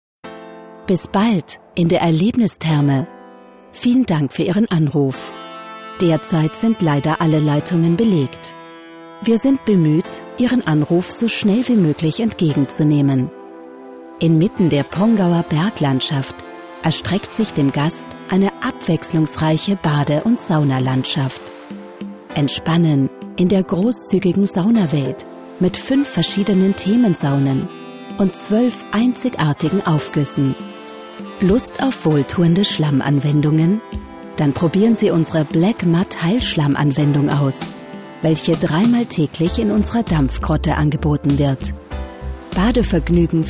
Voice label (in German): sprecherin; therme amade